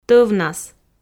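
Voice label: "тӧвнас", Komi, female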